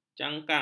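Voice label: "jangkang", Malay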